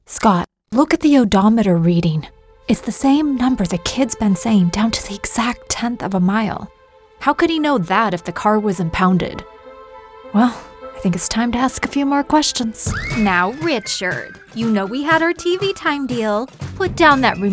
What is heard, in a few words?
Animation